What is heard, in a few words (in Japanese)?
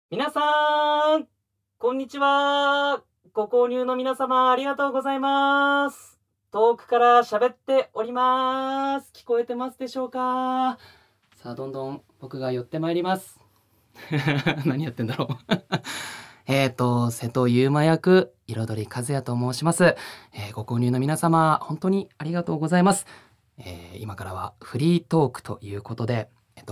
フリートーク, ASMR